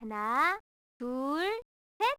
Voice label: count 123, camera; LG LV7500 Whistle

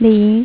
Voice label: "3" female mandarin; MediaTek; HumanVoice

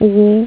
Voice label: "3" female mandarin

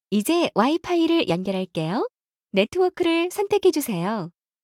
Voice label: Wi-Fi start, ko; Samsung Galaxy S10; SuwScriptPlayer

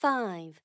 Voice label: lady

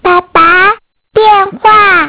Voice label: Child Voice; Innostream INNO 55

